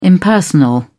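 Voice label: "impersonal", British English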